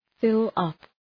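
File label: "fill-up", English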